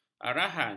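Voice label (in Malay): "arahan", Malay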